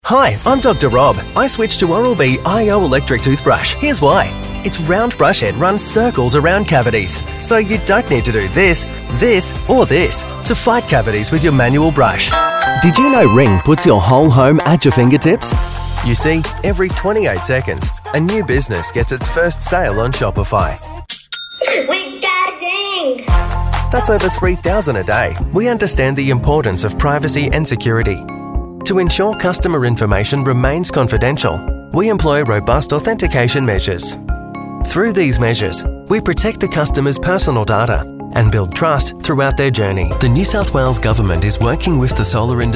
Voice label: Mixed Voice